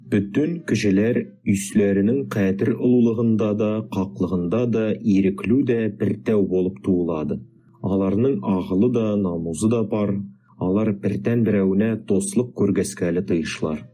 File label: udhr barabatatar, Article 1 of the Universal Declaration of Human Rights in Turkic languages; ILoveLanguages!